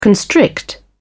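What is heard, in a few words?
"constrict", English, British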